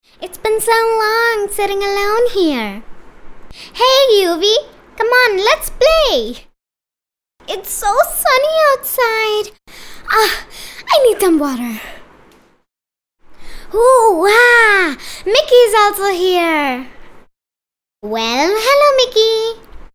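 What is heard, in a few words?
US English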